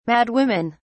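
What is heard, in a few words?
"madwomen", British English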